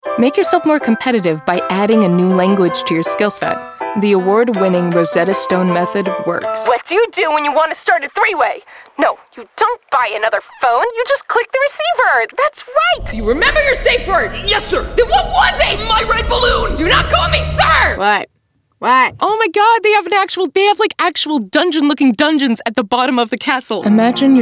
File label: commercial, nonbinary